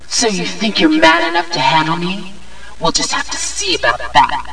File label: MAN; Duke Screw'em 38D